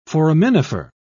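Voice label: "foraminifer", en, uk British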